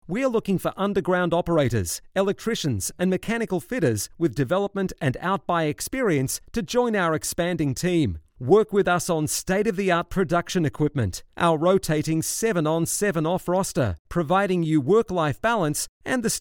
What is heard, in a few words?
Corporate Friendly